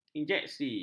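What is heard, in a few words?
"injeksi", Malay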